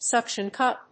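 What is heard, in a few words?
"Suction cup", English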